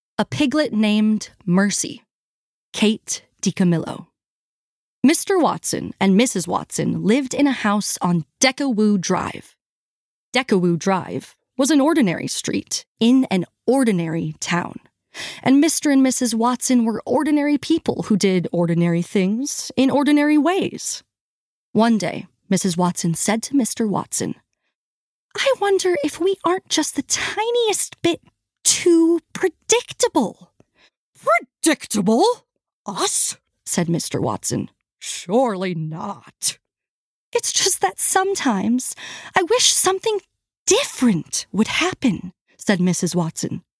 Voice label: Audiobook; A Piglet Named Mercy by Kate DiCamillo

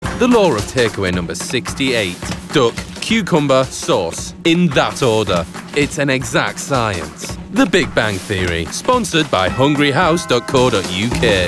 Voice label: COMMERCIAL; HungryHouse

